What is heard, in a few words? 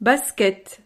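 "basket", French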